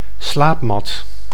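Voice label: "slaapmat", Dutch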